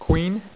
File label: "QUEEN", Dealer's Speech